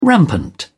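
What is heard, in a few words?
"rampant", British English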